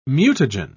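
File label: "mutagen", British English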